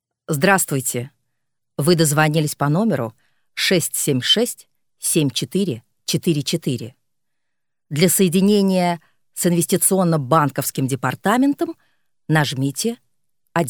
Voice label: ivr rus